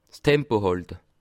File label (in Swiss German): "tempo", Alsace